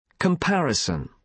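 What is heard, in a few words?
"comparison", British English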